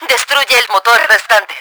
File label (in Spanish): engine down, Spanish; Battle Engine Aquila; XBOX